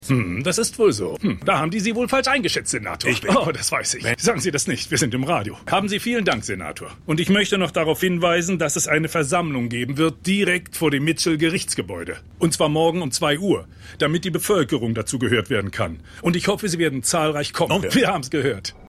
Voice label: Radiomoderator; The Wire

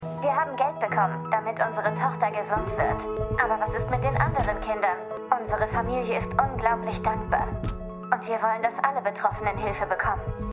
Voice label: Frau; New Amsterdam

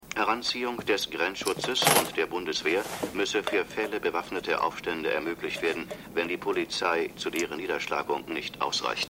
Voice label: TV Sprecher; Maennerwirtschaft

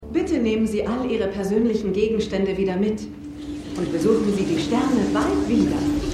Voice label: Sprecherin; Shining Girls

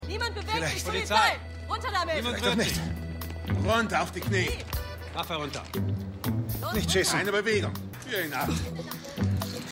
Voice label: Polizistin Polizist, Stimmproben; Republic Of Doyle

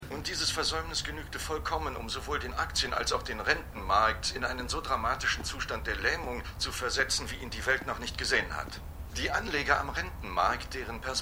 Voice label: Nachrichtensprecher; AusgerechnetAlaska

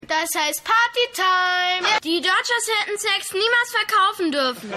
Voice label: Junge

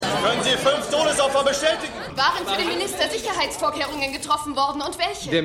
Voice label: Reporter, Reporterin; Silent Witness

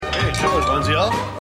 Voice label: Mann; AusgerechnetAlaska

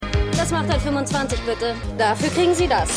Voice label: Verkaeuferin, Stimmproben

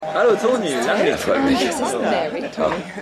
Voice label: Mann; Mary HG

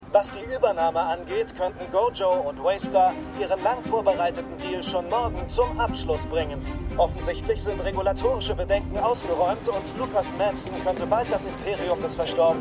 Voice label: Nachrichtensprecher; Succession

